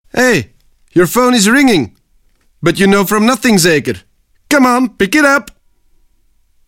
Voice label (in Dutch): Reclame; Belgacom